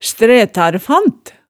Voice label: "strætarfant", hemsedalsmaalet

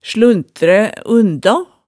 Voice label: "sluntræ undaa", hemsedalsmaalet

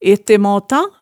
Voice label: "ettemaata", hemsedalsmaalet

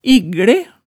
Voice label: "igglæ", hemsedalsmaalet

